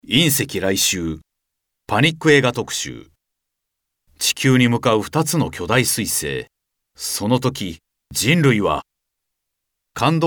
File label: narration